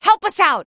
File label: ping avatar under attack, female